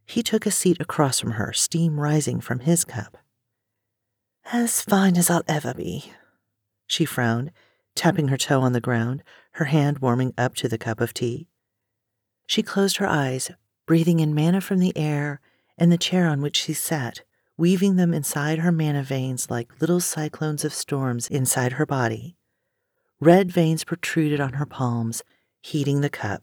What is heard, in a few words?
British accents